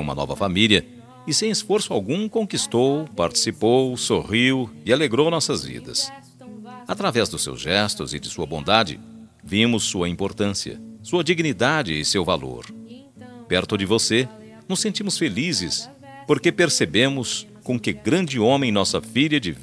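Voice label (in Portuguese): Aniversário Evangélico Genro Masculino, telemensagem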